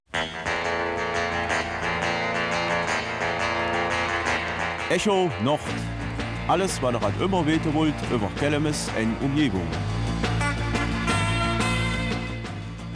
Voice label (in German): Kelmiser Mundart